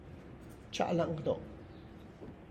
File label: I am going to church, Tlacochahuaya Zapotec; txa' la'n gdo